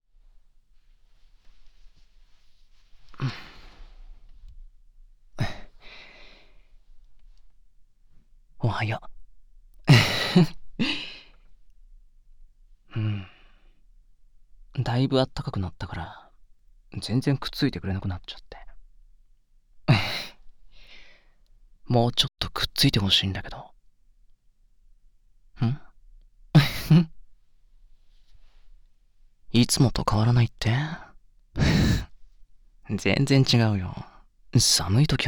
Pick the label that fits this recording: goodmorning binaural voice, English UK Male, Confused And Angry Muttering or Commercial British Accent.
goodmorning binaural voice